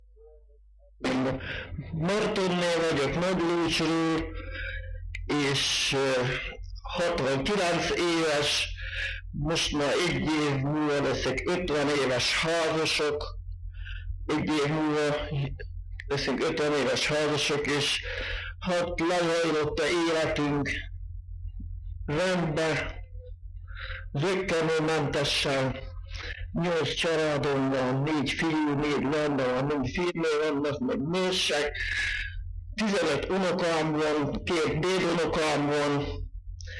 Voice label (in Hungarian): nyelvjaras gyujtesek